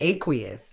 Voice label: "aqueous", Medical English; ˈeɪ.kwiː.əs; AY-kwee-uhss